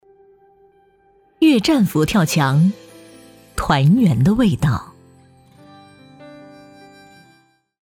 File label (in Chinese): 女 电视广告 食品; 悦栈佛跳墙